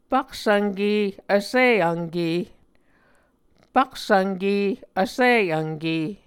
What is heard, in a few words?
"paksvnke vsi vnke", Muscogee